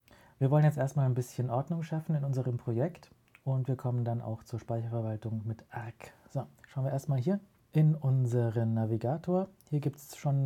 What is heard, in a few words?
unprocessed; auphonic loudnorm